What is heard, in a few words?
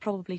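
"probably", Standard Southern British English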